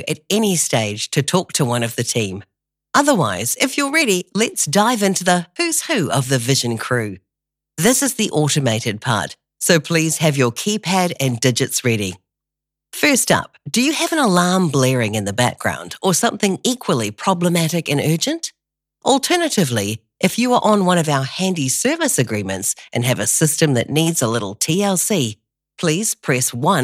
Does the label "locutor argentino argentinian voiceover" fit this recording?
No